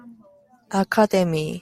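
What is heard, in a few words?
"academy", Japanese, female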